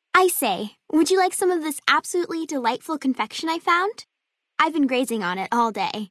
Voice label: Female child dialogue greeting; FalloutNV

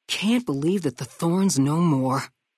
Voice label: female adult greeting; Fnv

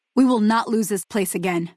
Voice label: female adult greeting; Fnv

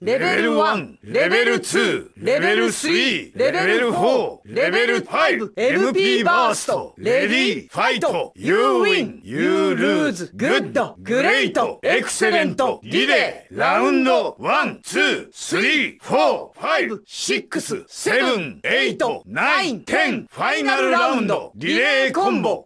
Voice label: Japanese Announcer; Guillo

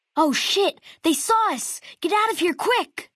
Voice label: male child; take water

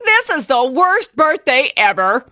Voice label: Female Birthday

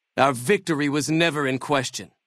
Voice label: male adult default greeting; Fnv

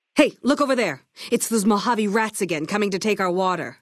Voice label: female adult leave water

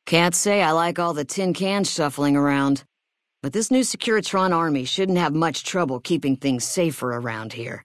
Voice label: female adult greeting; Fnv

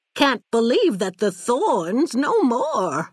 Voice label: female old dialogue greeting; Fnv

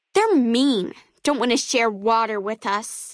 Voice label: female child greeting

